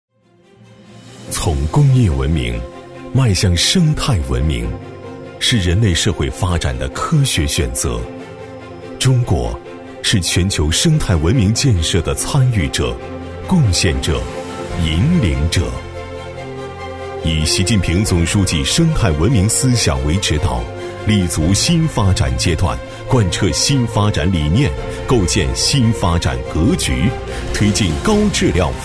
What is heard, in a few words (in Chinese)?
男 【宣传】 大气质感风格; 双碳